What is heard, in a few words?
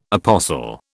"apostle", British